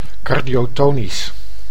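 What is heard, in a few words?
"cardiotonisch", Dutch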